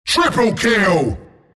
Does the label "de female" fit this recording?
No